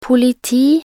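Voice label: "politi", Norwegian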